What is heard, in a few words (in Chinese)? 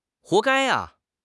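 "活该", Chinese; HSK6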